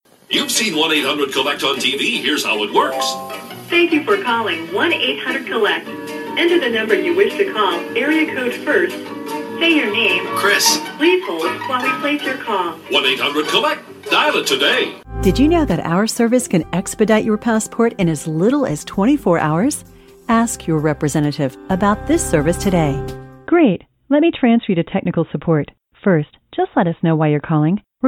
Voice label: The Warm & Friendly IVR Phone Voice